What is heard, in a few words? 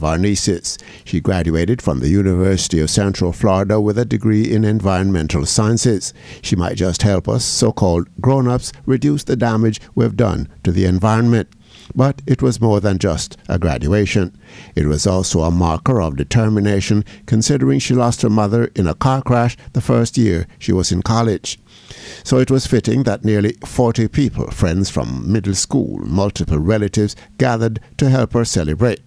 COMMENTARY